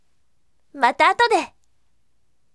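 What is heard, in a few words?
voice, bright; mata atode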